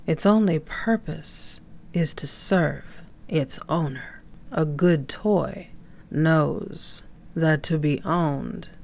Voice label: Induction Trance; Be A Good Toy